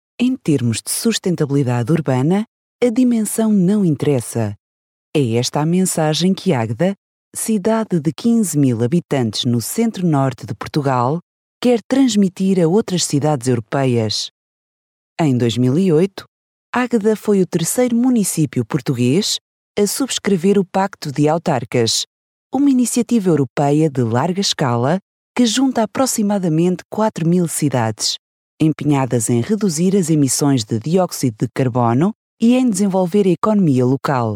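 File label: Portuguese, female, Documentary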